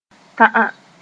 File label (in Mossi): "tã'", moore